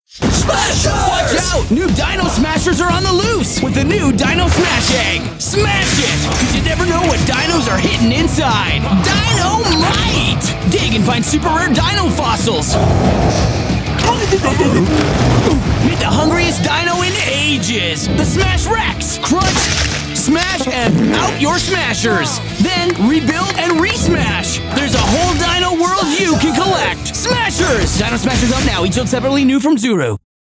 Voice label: English Canadian